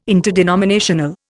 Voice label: "interdenominational", Indian